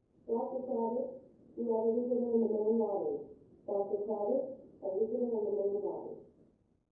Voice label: Hospital Pa Short An, pages